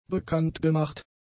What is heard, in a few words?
"bekanntgemacht", German; adjectives